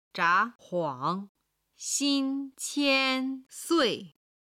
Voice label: "zha2huang3xin1qian1sui4", chinese